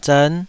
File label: "zern5", Taiwanese